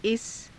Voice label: "isa", Hindi; この; इस